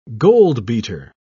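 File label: "goldbeater", British English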